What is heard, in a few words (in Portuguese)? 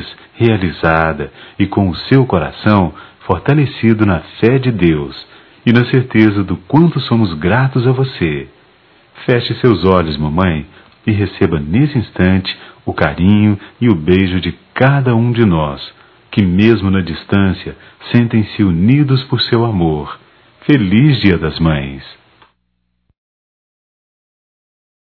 Mãe distante no plural, masc; Telemensagens 2017; Dia das Maes